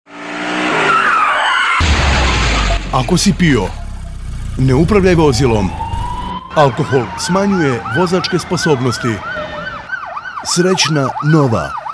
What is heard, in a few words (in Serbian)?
Radio džingl; Srećna nova bez žrtava